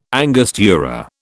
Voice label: "angostura", British